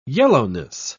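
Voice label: "yellowness", British English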